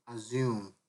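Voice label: "assume", Nigerian Pidgin, male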